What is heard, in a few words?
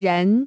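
"人", Chinese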